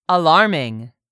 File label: "alarming", English; 3000 words